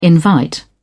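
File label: "invite", British English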